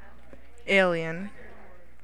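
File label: "alien", USA, female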